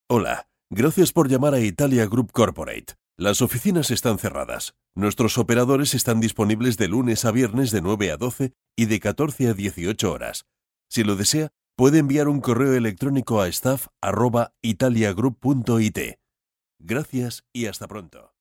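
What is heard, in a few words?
Spanish, male, ivr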